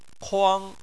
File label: "kuang1", Chinese